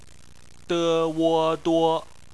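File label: "duo" bopomofo, Chinese; phonetics; bpmf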